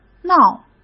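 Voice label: "闹", Mandarin Chinese; chinese flashcards; hsk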